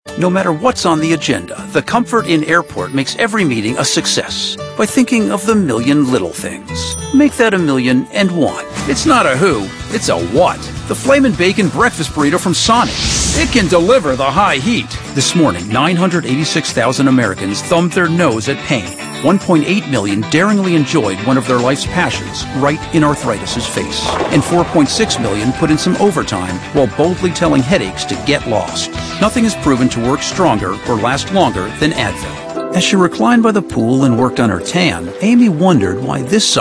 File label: male, mid30s mid40s warm